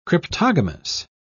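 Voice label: "cryptogamous", British English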